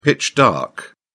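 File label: "pitch-dark", British English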